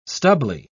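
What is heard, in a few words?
"stubbly", English, uk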